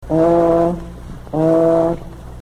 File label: oohl, yurok